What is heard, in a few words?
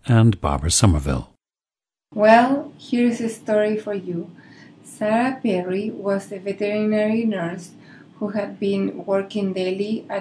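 chile, International Dialects of English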